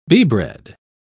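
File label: "beebread", English, British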